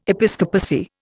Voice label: "episcopacy", Indian